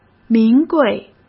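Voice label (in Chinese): "名贵", Mandarin Chinese; hsk; chinese flashcards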